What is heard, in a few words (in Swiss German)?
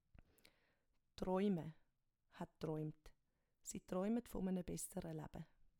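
"troime", Swiss German; Wortschatz Kapitel 1; Kapitel 1 Hauptwortschatz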